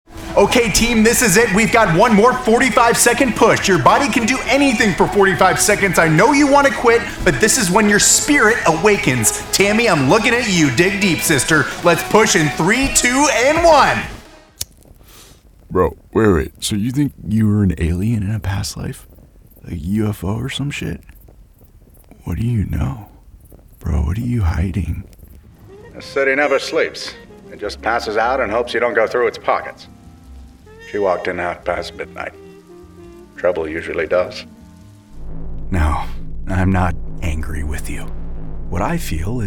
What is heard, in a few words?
men video games